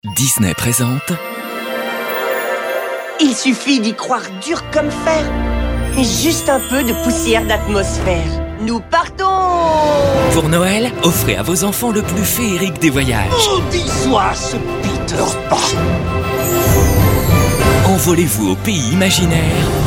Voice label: childrens tv, French voice over; Disney